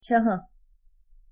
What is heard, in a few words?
"ಚಹಾ", Kannada; thee-in-andere-talen